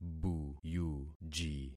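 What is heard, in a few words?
"buyuji", concatenated syllables; Affixing experiment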